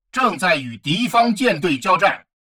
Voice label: advisor notification hostile fleet engaged, chinese; Stellaris